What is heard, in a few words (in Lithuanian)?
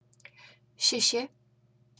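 "sesi", Lithuanian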